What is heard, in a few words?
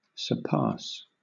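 "surpass" English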